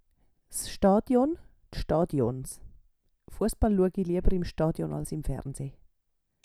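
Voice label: "s Schtadion", Swiss German vocabulary; Wortschatz Kapitel 8; Kapitel 8 Zusatzwortschatz 5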